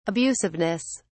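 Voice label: "abusiveness", English, British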